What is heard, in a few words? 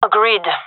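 agree, female; vo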